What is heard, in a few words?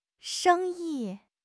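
"sheng1 yi5", Mandarin Chinese; HSK4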